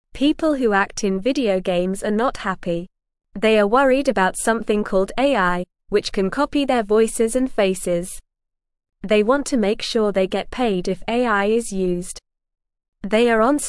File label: English Beginner NORMAL Reading; Actors in Video Games Want Fair Treatment Now